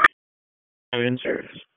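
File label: EMS Dispatch; wake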